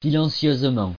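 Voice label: "silencieusement", French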